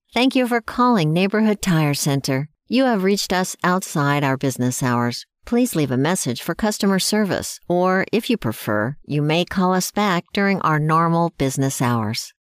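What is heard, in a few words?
interactive voice response only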